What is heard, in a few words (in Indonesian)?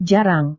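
"jarang", Indonesian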